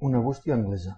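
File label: uk post box, Catalan; tuxpaint-stamps; town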